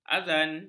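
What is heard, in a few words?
"azan", Malay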